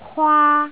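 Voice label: "kwaa1", Cantonese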